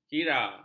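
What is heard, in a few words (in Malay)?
"kira", Malay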